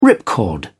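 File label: "ripcord", British English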